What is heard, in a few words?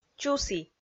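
"чусі", Komi-Zyrian, female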